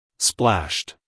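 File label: "splashed", American English, male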